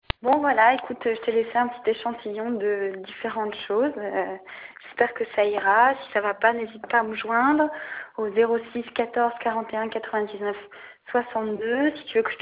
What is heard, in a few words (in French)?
message telephoniques